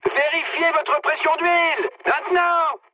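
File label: French damaged oil mood high; War Thunder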